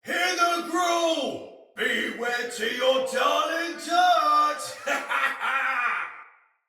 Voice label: See Player, Soldier Male; Battle; Barks; Dialog; Sinecure Soldier Male B